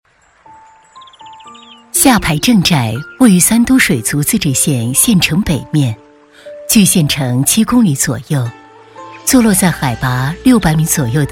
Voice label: 女 景区介绍 优美柔和讲述; 旅游风光景区配音; 下排正寨

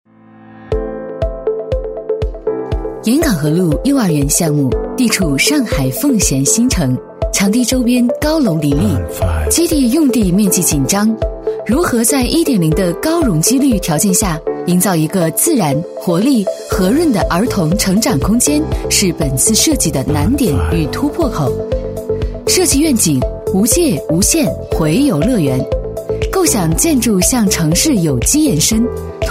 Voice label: 女 工程介绍 轻快质感; 沿港河路幼儿园; 学校

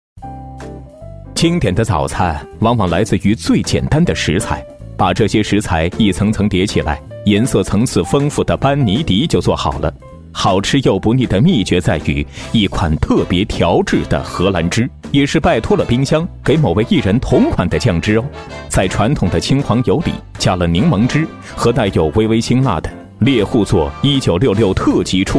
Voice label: 男 自媒体配音 自然素人; 经典早餐; 磁性稳重; 轻松活泼

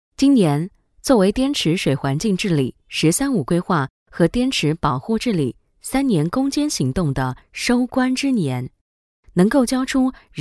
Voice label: 女, 新闻专题, 汇报风格＋素人风格; 年轻知性; 成熟知性

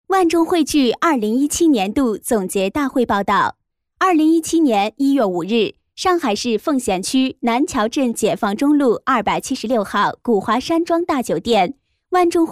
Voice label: 女 专题配音 总结报告; 女24-洪亮激情; 成熟知性

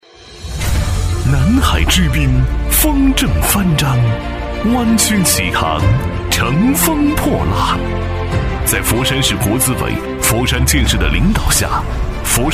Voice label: 男 党建专题 大气硬朗; 时尚大气; 大气浑厚

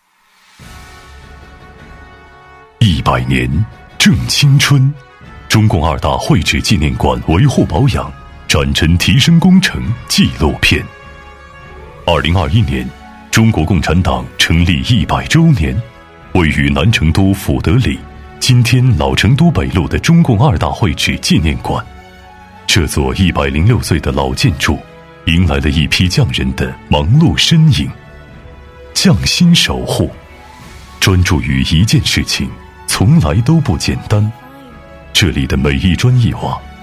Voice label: 男 党建专题 深情; 磁性品质; 成熟厚重

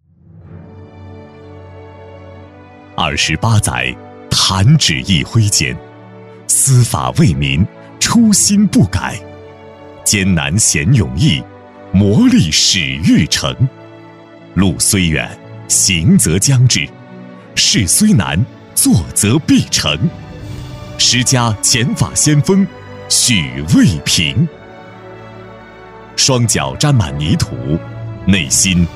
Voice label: 男 宣传片 颁奖词 激情有力; 高端大气; 大气浑厚; 虔法先锋

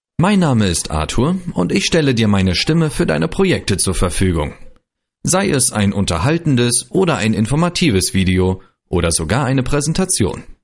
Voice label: 德语男声

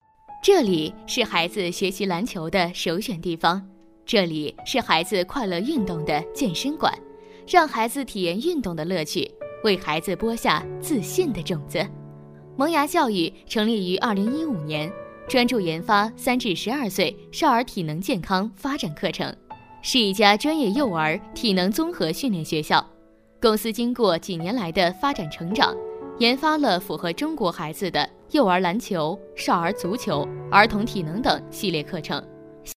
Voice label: 女, 专题, 教育宣传片解说; 轻松活泼; 女285-明亮柔和

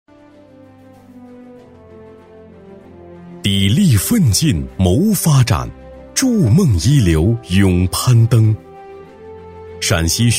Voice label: 男 学校宣传 大气厚重; 男70-大气主流 大气浑厚; 幼儿教育学院党委

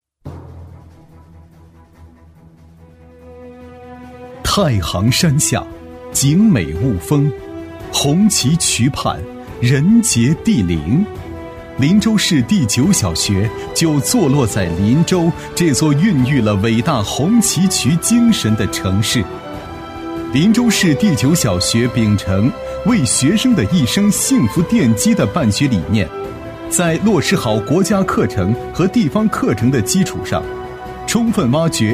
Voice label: 男, 学校宣传, 激情有力; 年轻厚重; 大气浑厚; 林州市第九小学

